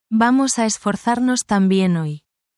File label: spanish, spanish phrases